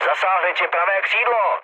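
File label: right wing, Czech, mood med; speech; War Thunder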